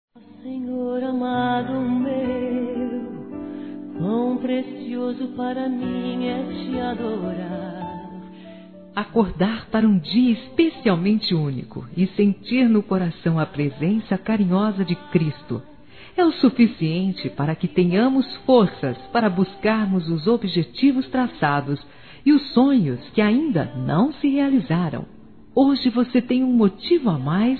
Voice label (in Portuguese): Aniversário Neutro Evangelico Feminino